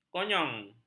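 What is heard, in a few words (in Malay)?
"konyong", Malay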